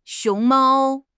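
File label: "xiong2 mao1", Chinese; HSK; HSK3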